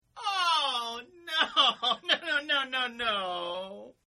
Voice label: Oh no no no Male, Exclamations; Voice; Partners In Rhyme